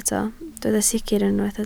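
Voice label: "sicr" Welsh, spoken word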